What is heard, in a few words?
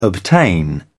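"obtain", British English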